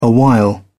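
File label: "awhile", British English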